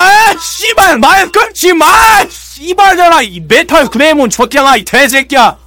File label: scout negative vocalization